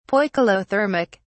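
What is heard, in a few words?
"poikilothermic", British English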